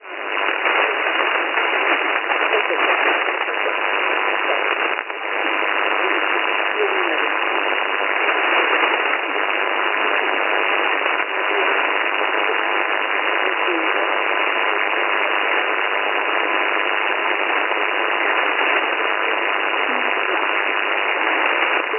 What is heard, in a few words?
San Benedetto del Tronto Radio 1855 kHz; IQP; Perseus; Schwarzsee